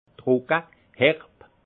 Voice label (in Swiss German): "trocke", alsace; vin